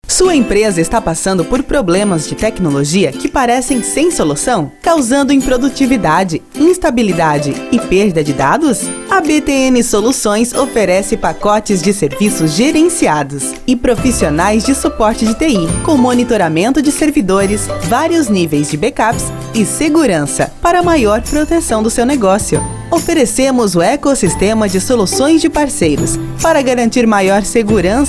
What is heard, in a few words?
Portuguese